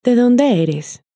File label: de donde eres, Spanish